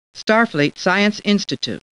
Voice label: Star Trek Computer Voice